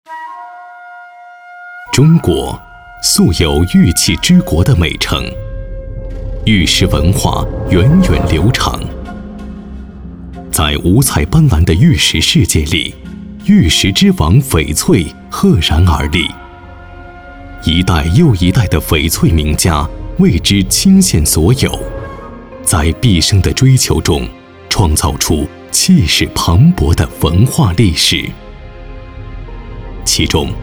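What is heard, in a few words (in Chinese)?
男国大音-专题; 翡翠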